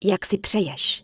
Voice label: Human Female Thank You; wowpreklad; czwow; HumanVocalFemale